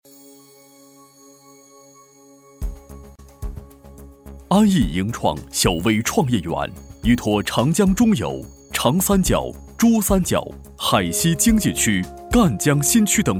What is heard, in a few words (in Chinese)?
男 专题 小微创业园, 国语男声配音; 大气专题; 盈创小微创业园